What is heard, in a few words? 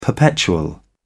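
"perpetual", British English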